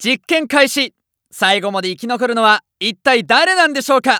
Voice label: Announce Start Game, JPN; Eternal Return